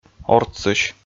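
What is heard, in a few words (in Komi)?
"ортсысь", Komi, male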